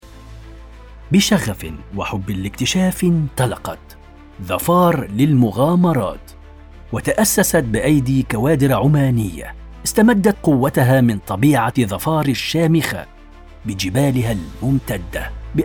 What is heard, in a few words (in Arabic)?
اعلان; اعلان ظفار